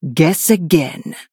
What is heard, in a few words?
Female Human Attack